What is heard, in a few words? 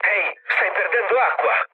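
Italian damaged water mood low, speech; War Thunder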